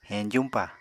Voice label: "heñjuñpa", apatani